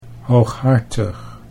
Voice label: "hooghartig", Dutch

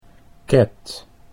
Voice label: "cat", Dutch English

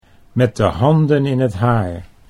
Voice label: "met de handen in het haar", Dutch

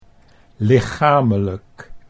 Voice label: "lichamelijk", Dutch

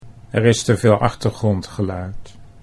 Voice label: "er is te veel achtergrondgeluid", Dutch

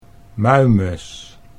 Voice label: "muimes", Dutch

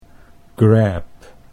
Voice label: "grab", Dutch English

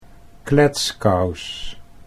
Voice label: "kletskous", Dutch

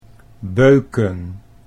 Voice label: "beuken", Dutch

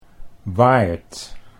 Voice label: "waard", Dutch

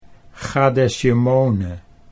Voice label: "chadesjemone", Dutch

